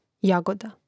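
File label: "jagoda", Croatian; mreznik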